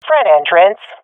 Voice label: loc front, swat fem; vo; Counter Strike Model Pack; CS:GO

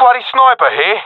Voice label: sees sniper, professional; vo; Counter Strike Model Pack; CS:GO

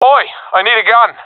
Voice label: request weapon, professional epic; vo; Counter Strike Model Pack; CS:GO

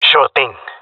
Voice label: agree, jungle male; vo; agents; Source Model Packs; Counter Strike Model Pack; CS2

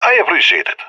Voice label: thankful; Counter Strike; CS:GO; leet epic

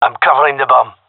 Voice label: gendermerie male; loose bomb; Counter Strike Model Pack; CS:GO

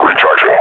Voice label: reload, combine grunt; vo

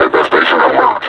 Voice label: announce enemy zombie, combine suppressor; Half Life Alyx

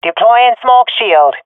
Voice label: throwing smoke, swat fem; vo; Counter Strike Model Pack; CS:GO

